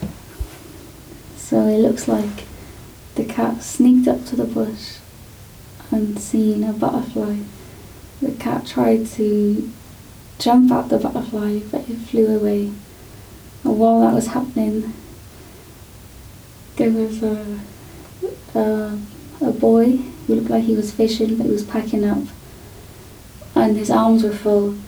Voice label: L1 English; LnNor Corpus; Controls English